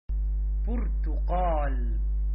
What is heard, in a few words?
Orange in arabic